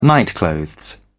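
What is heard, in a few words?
"nightclothes", English, British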